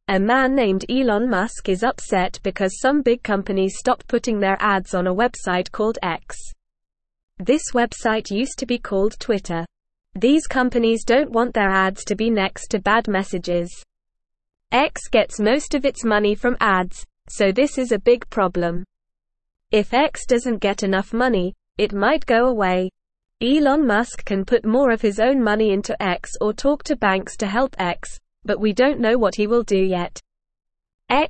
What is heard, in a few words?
English Newsroom Beginner NORMAL Reading; Elon Musks Website X is in Trouble